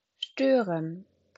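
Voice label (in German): "stören", German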